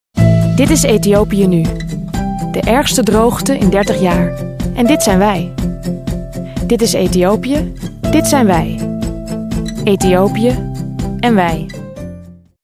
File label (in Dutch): Stemdemo Vertellend